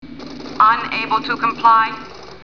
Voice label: unable to comply, computer voice